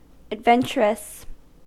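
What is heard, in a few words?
"adventurous", US English; pronunciations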